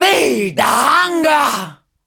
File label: Female Taunt, Cannibal, dialogue